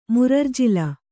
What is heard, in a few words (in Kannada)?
"ಮುರರ್ಜಿಲ", Kannada; murarjila; noun; a kind of rice.